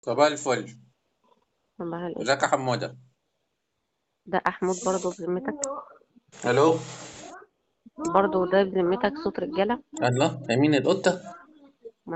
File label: Call recording